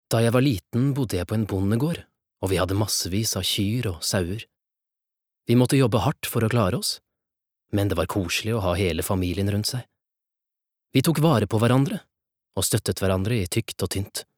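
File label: Norwegian dub man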